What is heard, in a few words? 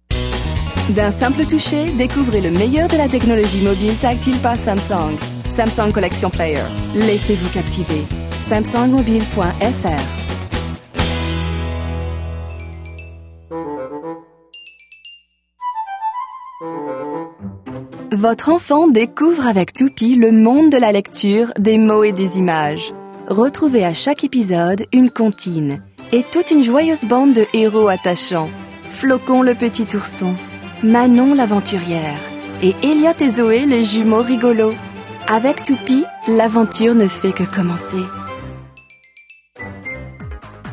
french euro commercial